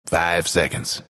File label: announcer count pick 5; dlc bastion